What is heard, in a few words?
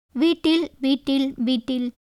Tamil